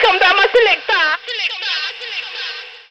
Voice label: Selector, Vox FX; Total Drum&Bass